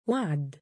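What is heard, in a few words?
female name "wa3d", Arabic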